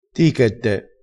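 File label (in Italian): "tichette", dialetto crespinese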